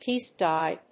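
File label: "piece-dye", English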